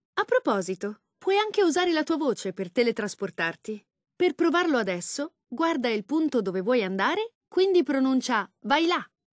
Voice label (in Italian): lang it it, voiceovers